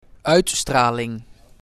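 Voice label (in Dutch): "Uitstraling", Dutch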